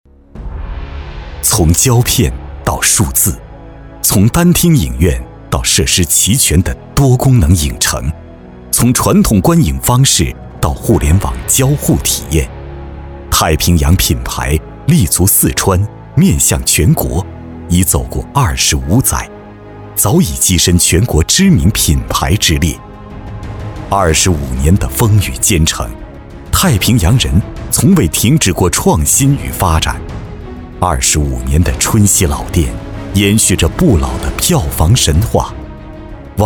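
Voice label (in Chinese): 男国大音-企业宣传片; 历史发展 太平洋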